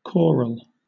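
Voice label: "choral", English, British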